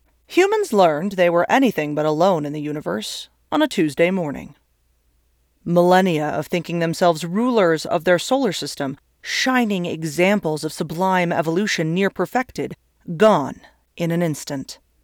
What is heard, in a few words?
Dry Humor Sci Fi Intro